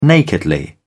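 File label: "nakedly", British English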